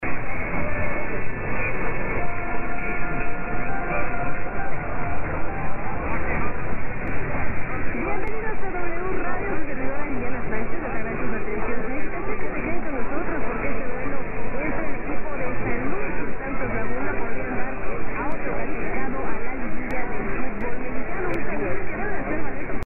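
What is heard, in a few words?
900 possible Mexico W Radio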